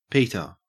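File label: "peter", Australian English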